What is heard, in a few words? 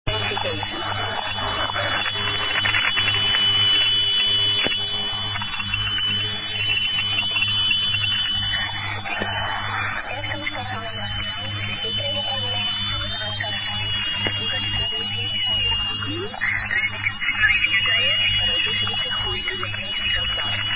usb